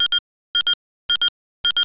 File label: paging